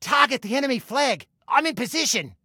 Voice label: Target Flag, Male; Male 02